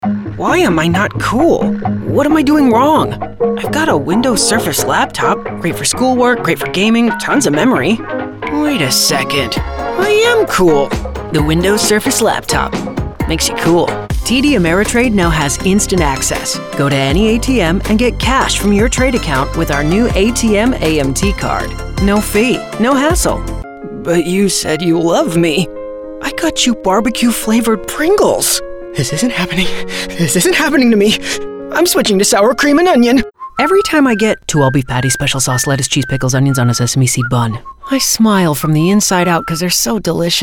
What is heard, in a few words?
Commercial